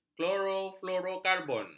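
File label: "klorofluorokarbon", Malay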